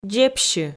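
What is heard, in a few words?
"дзебсьы", Komi, female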